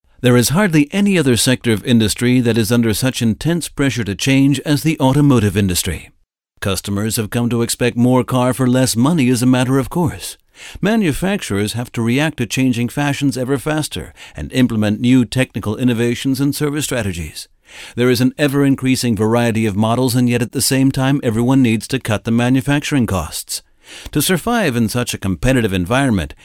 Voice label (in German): Eng US Kommentar Neutral